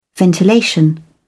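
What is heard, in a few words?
"ventilation", British English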